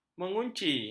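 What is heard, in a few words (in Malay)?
"mengunci", Malay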